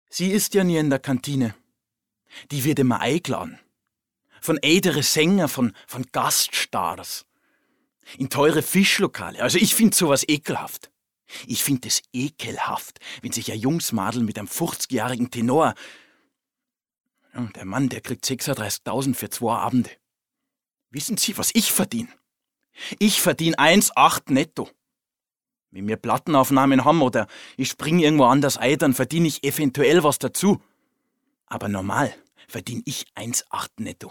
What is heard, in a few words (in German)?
Bayerisch